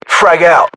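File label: inform attack grenade, battlechatter, British; english; uk; localized english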